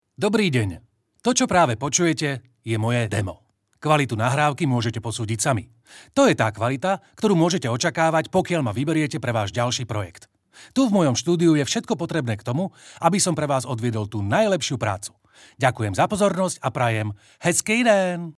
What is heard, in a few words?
slovak male